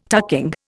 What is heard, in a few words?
"tucking", Indian